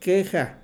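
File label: "queja", Mexican Spanish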